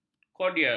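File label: "kordial", Malay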